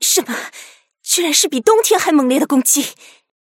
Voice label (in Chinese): 中文 break